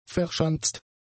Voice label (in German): "verschanzt", german; adjectives